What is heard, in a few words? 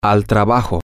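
Spanish, to the work place, male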